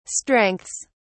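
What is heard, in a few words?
"strengths", English, British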